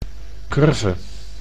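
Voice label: "curve", Dutch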